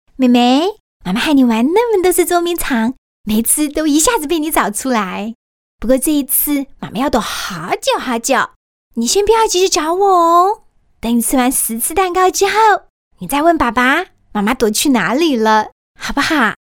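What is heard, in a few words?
Mandarin Taiwanese, Female; Life Insurance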